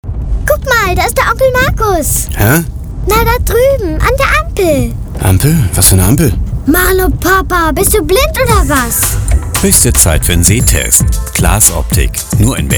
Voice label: Handel Radiowerbung

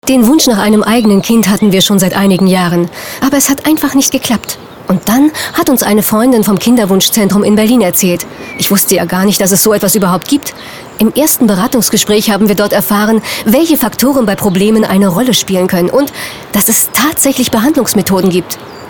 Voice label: Gesundheitswesen Pharma Radiowerbung

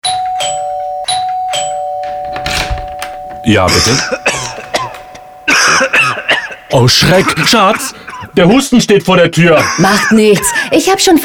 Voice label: Gesundheitswesen Pharma Radiowerbung

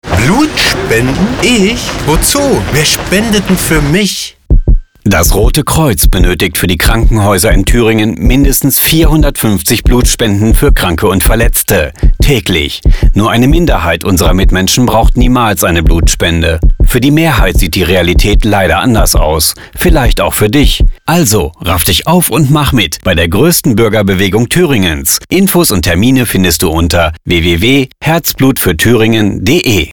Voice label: Gesundheitswesen Pharma Radiowerbung

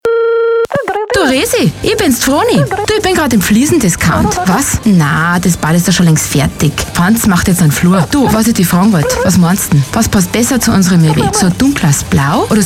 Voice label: Bauwesen Radiowerbung

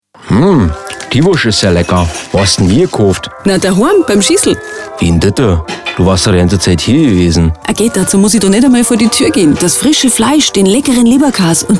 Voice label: Handel Radiowerbung

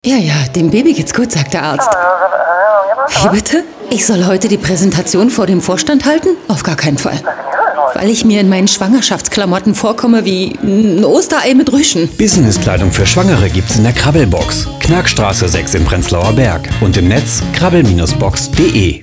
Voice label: Fashion Mode Radiowerbung

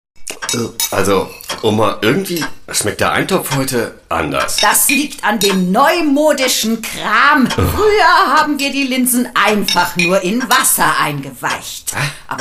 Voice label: Beauty Radiowerbung

